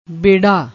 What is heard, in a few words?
"berra", Punjabi